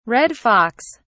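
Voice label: "red fox", British English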